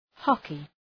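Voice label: "hockey", English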